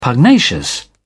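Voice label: "pugnacious", British English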